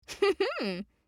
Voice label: Emote Positive

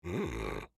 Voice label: Emote Positive; Drek'ar

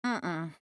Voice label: Emote Negative

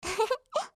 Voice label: Emote Positive

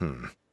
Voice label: Emote Negative

